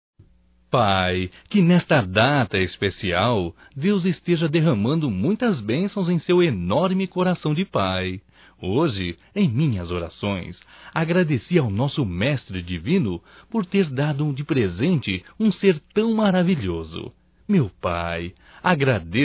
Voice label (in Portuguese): Aniversário Pai Evangélico Masculino; Aniversarios; Telemensagens 2017